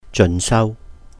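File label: "jeun sàu", Cantonese; continuing education; 進修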